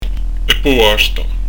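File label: "eppo basta", Prononciation du corse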